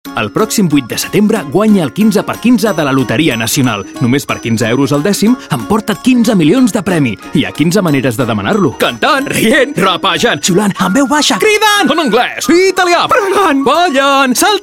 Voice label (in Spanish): Cuña Loteria Catalán